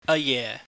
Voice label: "ayeh", Cantonese